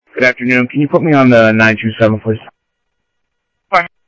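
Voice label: Sheriff East County, scanner audio trunk; MCSO - EAST Sheriff-EastCnty; ThompsonPeakScot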